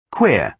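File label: "queer", British English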